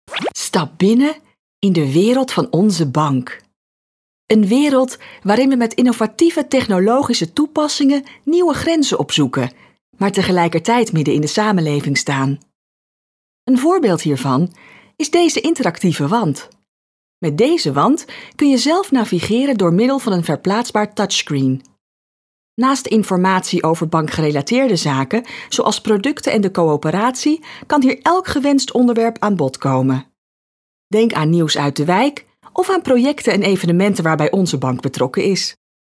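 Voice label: corporativo, Dutch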